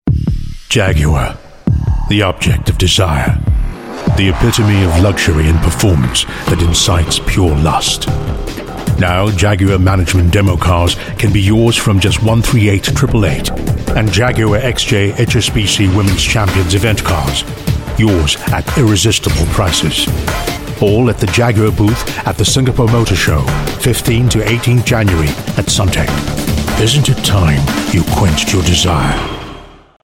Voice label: Asian English Spot; Jaguar XJ

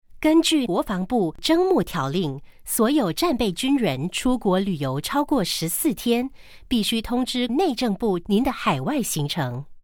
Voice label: Mandarin IVR, Mandarin China Female; SAF

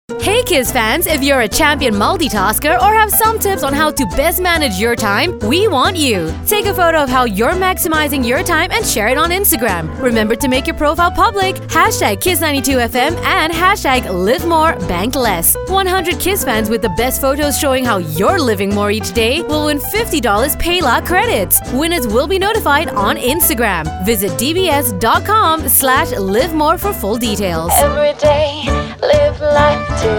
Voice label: Asian English; DBS LiveMoreBankLess

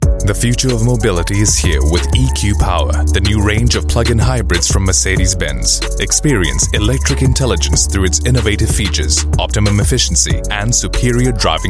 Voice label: Asian English; MercedesBenz EQ Power

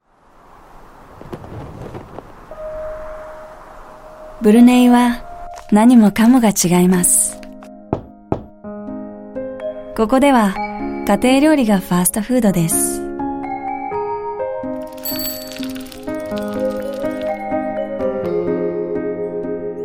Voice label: Japanese Image Spot; RBA

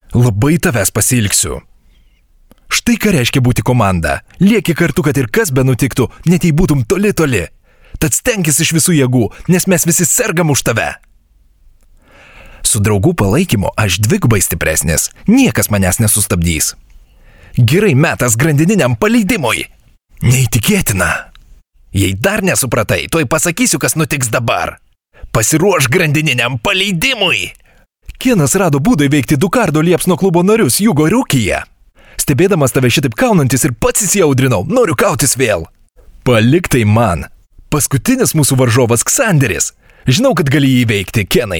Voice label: Lithuanian Animation, Lithuanian Male

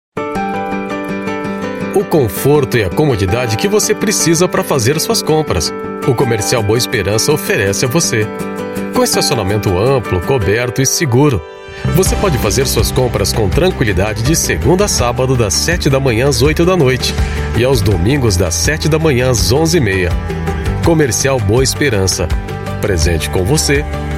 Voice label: Brazilian Portuguese Institucional informative

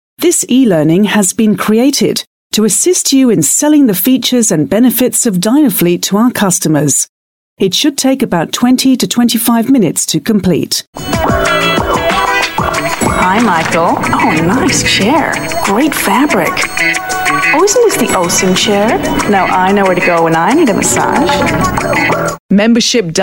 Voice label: UK English eLearning

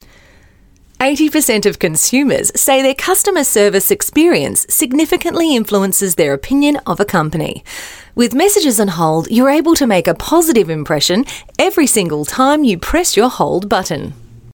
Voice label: AU English IVR

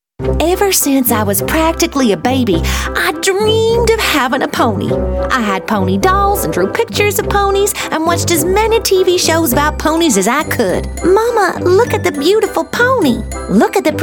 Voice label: AU English Accent

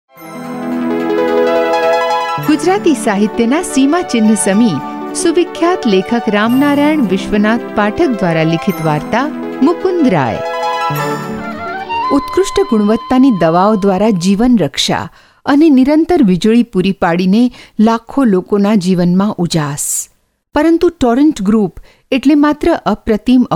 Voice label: Gujarati, India Female

